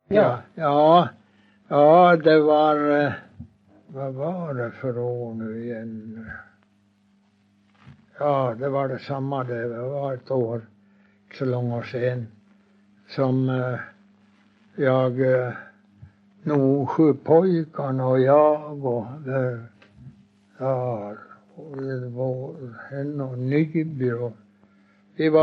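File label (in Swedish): Huddunge Uppland, dialekter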